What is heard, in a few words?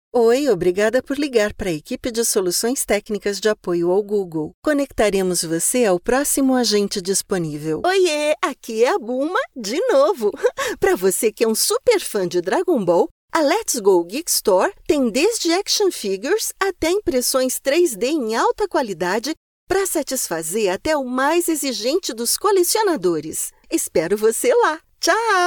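Brazilian Portuguese, female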